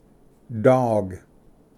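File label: "dog", English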